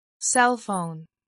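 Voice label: "cellphone", English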